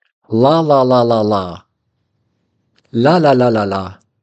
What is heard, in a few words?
lalalala, accent in french; how to make your accent in french sound less english